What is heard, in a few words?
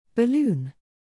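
"balloon", English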